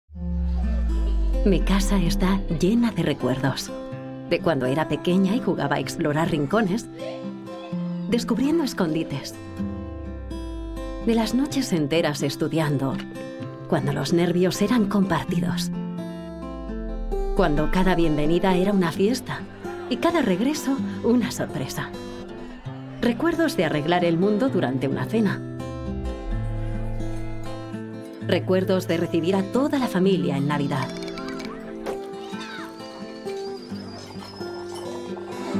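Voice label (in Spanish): generico España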